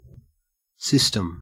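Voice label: "system", Australian English; pronunciation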